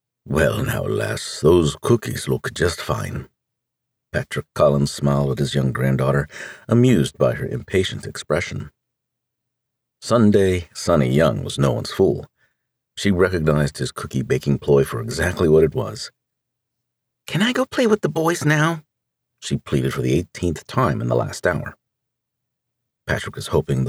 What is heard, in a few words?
Male Sample; Wild Kisses; Mari Carr